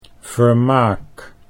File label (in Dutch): "vermaak", Dutch